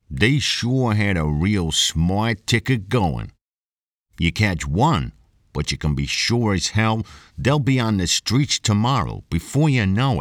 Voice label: US accent

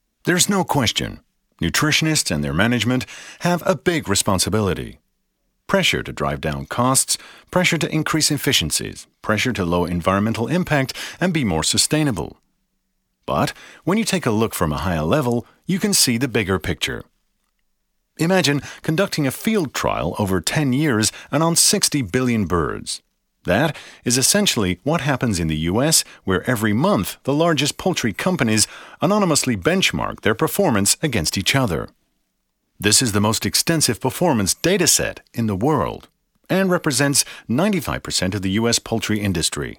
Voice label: corporate US accent; Alimet Corps

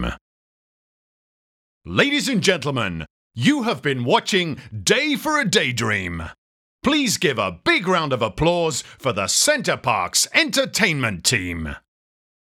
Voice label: show Announcer

